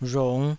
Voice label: "rhung5", taiwanese